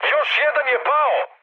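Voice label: Serbian player killed enemy mood high, speech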